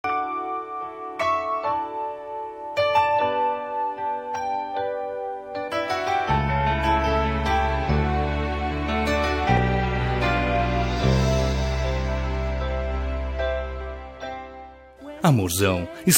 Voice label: dn amante masc, dia dos namorados amantes voz masculina

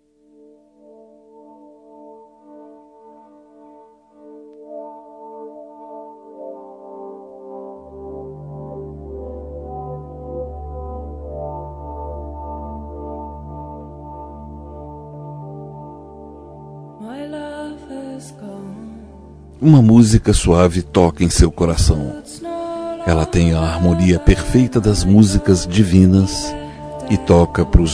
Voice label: irmao masc; Aniversário de Irmão – Voz Masculina

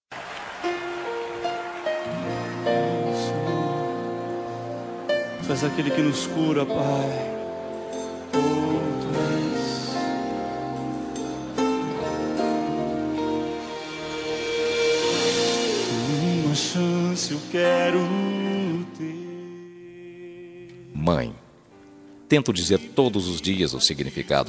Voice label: evangelica masc; dia das maes para mae evangelica

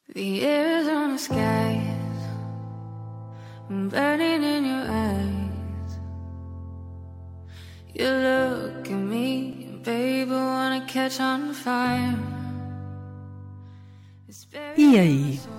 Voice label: dia dos namorados aniversário, voz feminina

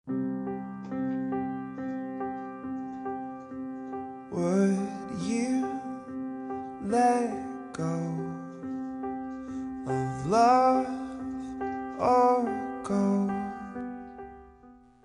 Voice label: plural fem, Dia das Mães – Para minha Mãe – Voz Feminina – Plural; Telemensagens

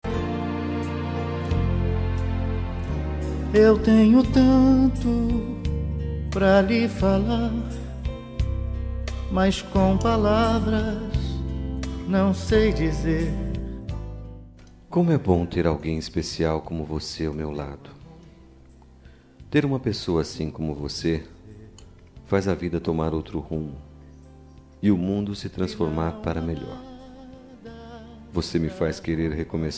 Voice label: dia mães namorada, voz masculina; Dia das Mães Romântica para Namorada

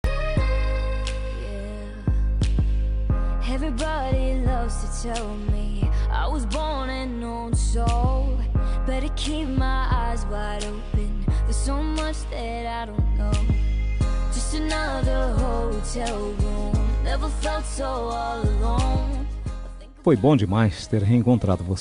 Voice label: Voz Masculina, Telemensagem; Telemensagem Reencontro

